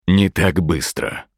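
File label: Hero Cooldowns Russian, Мужчина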